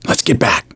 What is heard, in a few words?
backup quiet, voice; gambler